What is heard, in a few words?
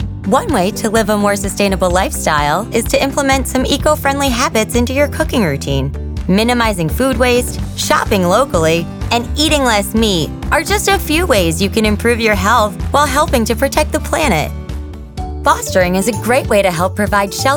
Narration